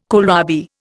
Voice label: "kohlrabi", Indian

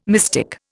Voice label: "mystic", Indian

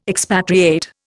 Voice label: "expatriate", Indian

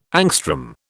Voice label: "angstrom", British

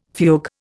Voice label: "fugue", Indian

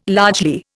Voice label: "largely", Indian

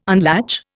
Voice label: "unlatch", Indian

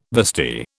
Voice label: "vestee", British

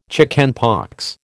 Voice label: "chickenpox", American

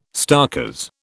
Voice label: "starkers", British

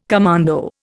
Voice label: "commando", Indian

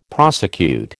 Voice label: "prosecute", American

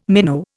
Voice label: "minnow", Indian

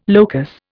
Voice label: "locus", Indian

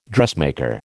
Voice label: "dressmaker", American

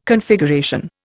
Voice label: "Configuration", Indian

